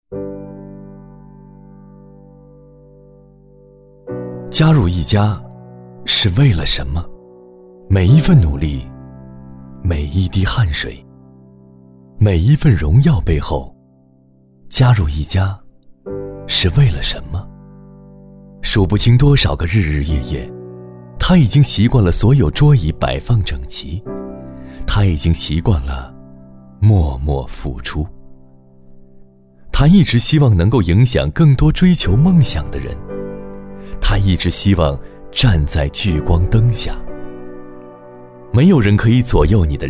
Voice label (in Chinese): 男 中文 震撼独白